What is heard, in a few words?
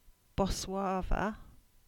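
"Boswarva" English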